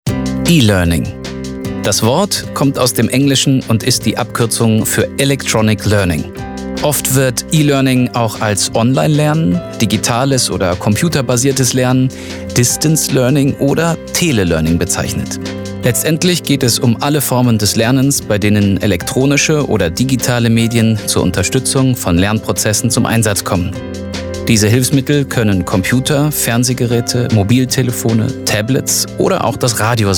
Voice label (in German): Doku eLearning